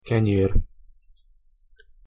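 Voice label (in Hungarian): "kenyer" Hungarian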